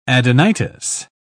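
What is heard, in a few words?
"adenitis", British English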